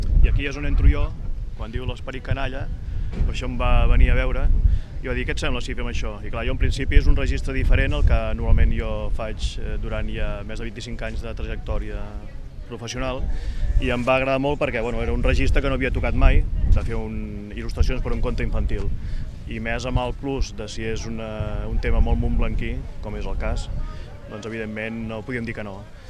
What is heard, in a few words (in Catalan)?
conte infantil; Sant Jordi, la princesa, la rosa i el drac és el títol del nou conte infantil